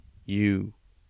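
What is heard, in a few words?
English alphabet u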